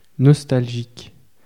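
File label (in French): "nostalgique", French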